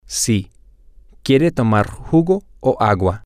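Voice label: yes she wants to drink juice or water, Spanish, male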